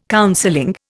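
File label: "counseling", Indian English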